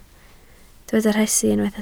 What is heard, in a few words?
"rhesi", Welsh